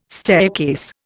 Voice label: "staircase", Indian